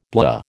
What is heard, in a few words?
"blah", American